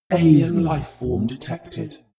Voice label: spaceship female status report